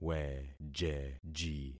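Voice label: "wejeji", concatenated syllables; Affixing experiment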